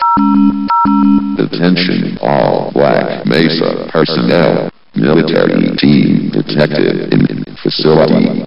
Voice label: allied announcement; sven; vox